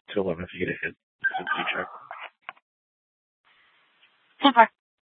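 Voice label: Police Department North Dispatch, scanner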